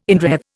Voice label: "inwreathe", Indian